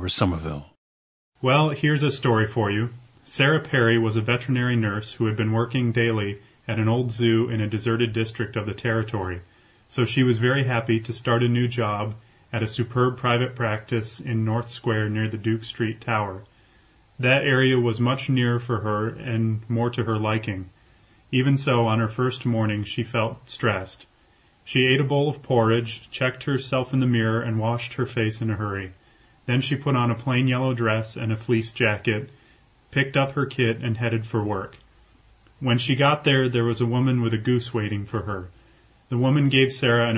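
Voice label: michigan, International Dialects of English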